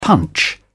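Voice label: "punch in", British English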